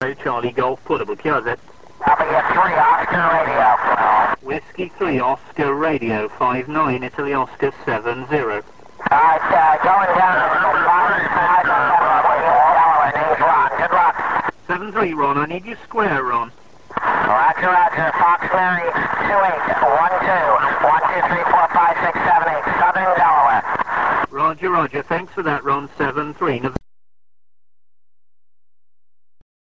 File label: qso